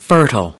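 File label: "fertile", American English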